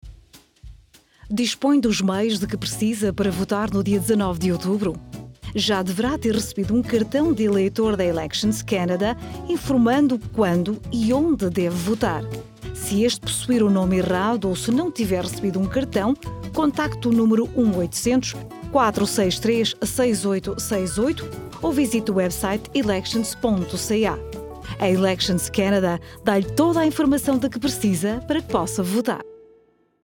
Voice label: portuguese, Radio